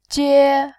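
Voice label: "jie", Mandarin, first tone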